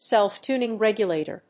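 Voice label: "self-tuning regulator", English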